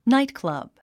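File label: "nightclub", English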